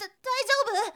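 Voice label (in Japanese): "daizyoubu", Japanese boy; shonen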